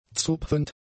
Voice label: "zupfend", German; adjectives